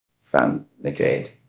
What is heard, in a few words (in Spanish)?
Voice Acting es F0